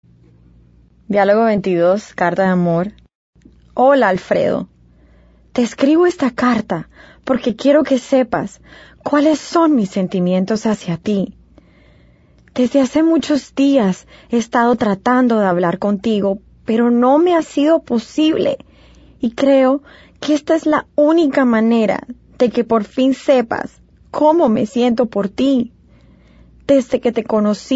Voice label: Dialogue, Spanish